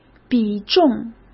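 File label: "比重", Mandarin Chinese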